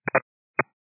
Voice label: 122 925MHz, scanner radio